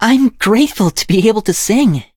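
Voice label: level up, English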